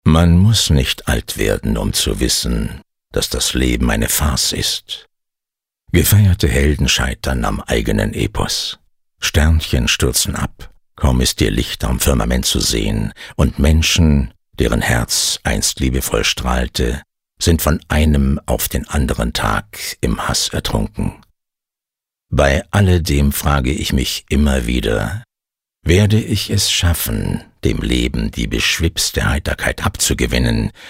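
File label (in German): acting, Sanft; Weltschmerz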